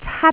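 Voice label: "cat1", Cantonese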